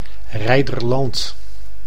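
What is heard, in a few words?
"Reiderland", Dutch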